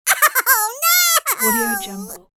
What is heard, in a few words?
cartoon cute fearful oh no; applehillstudios